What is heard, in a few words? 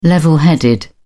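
"level headed", British English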